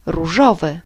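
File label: pink, Serbian; gcompris-data; colors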